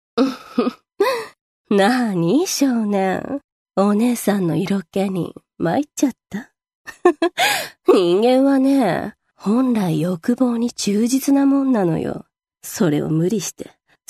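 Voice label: seikaku female iroppoi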